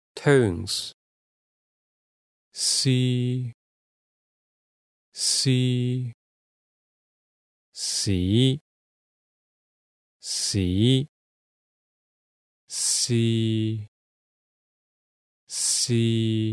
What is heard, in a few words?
Pronunciation Tones, Cantonese